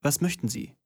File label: german voice; wyrmsun